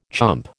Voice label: "chump", American

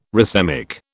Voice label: "racemic", British

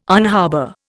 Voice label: "unharbour", Indian

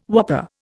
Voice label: "whopper", Indian

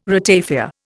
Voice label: "ratafia", Indian

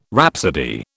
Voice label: "rhapsody", British

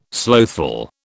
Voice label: "slothful", British

